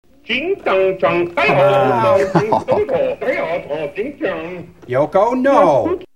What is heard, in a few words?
chinese